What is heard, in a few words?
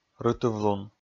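"рытыв-лун", Komi, male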